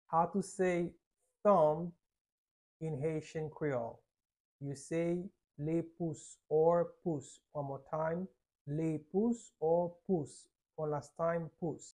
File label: "Lepous" Haitian Creole pronunciation by a private Haitian tutor; How to say Thumb in Haitian Creole